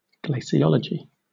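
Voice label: "glaciology", British English; pronunciations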